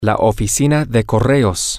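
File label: the post office, Spanish, male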